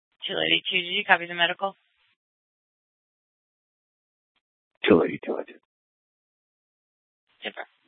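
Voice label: Scottsdale PD North Dispatch; Nth Dispatch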